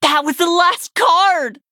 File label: warrior nonbinary night elf no cards